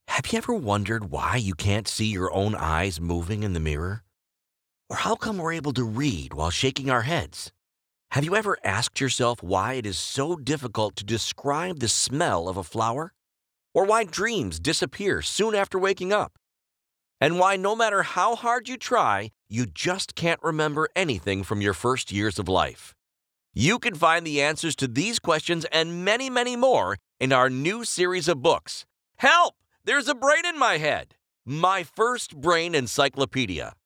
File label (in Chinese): 外籍英男 趣味百科书广告 清晰活力